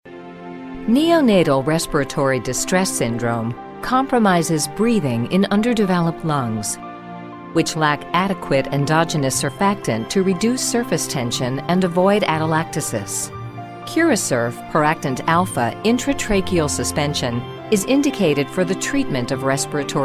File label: english american others